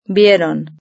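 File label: "vieron", Spanish; verb-conjugator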